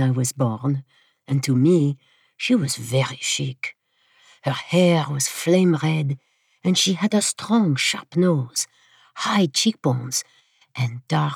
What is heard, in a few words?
French Accent Sisters